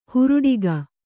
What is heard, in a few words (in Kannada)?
"ಹುರುಡಿಗ", Kannada